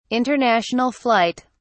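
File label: "international flight", British English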